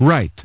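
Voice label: "write", English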